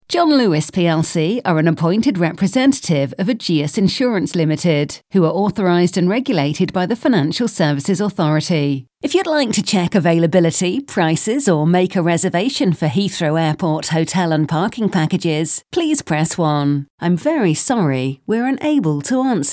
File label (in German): Eng UK Dynamisch